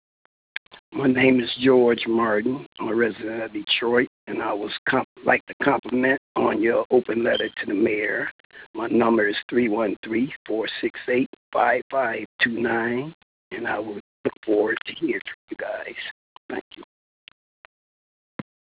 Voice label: voicemail